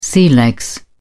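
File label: "sea legs", English, British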